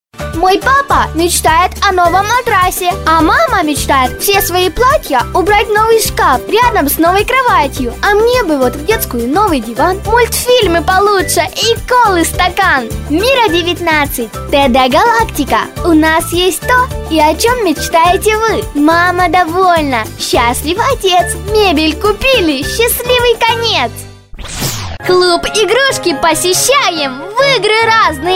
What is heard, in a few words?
Ru devochka 12 let